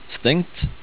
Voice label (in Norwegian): "stengt" Norwegian